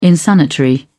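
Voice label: "insanitary", British English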